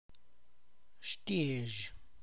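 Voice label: Tlacochahuaya Zapotec; garlic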